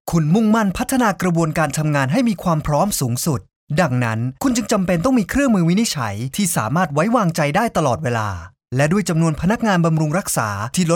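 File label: Thai, male; thai voice over talent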